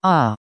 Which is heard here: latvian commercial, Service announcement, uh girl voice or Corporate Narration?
uh girl voice